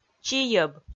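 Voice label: "чийӧб", Komi, female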